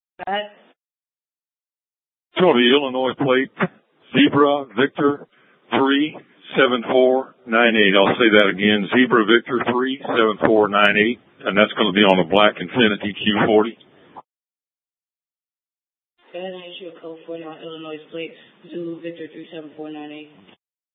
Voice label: Parking Channel, scanner; Arizona State University - Tempe Campus